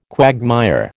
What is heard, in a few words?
"quagmire", American